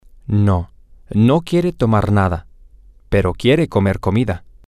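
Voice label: no I dont want to drink anything but I, spanish, male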